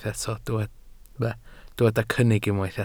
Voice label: "cynnig", welsh

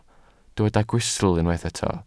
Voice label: "gwystl", Welsh

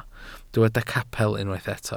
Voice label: "capel", Welsh

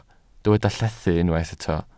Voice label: "llethu", Welsh

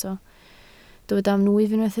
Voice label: "nwyf", Welsh

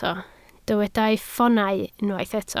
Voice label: "ffoonau", Welsh

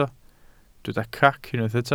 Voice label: "crac", welsh

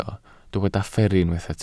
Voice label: "fferru", Welsh

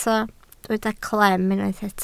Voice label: "clem", Welsh

